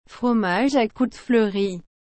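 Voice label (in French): "fromage à croûte fleurie", French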